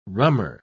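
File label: "rummer", English, British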